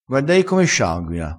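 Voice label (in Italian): "se", vocabolario dialettale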